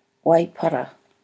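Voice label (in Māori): "waipara", Māori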